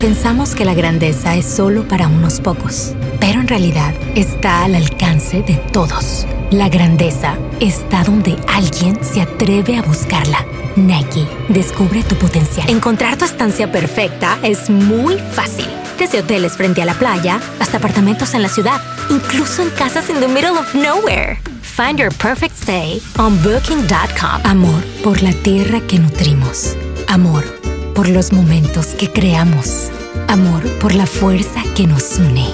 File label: Spanish Commercial